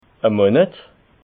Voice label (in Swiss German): "monet", alsace